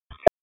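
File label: Dispatch, p25; WSO DISP 2; Wake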